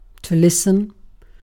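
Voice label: "listen", British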